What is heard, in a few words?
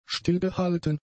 "stillgehalten", German; adjectives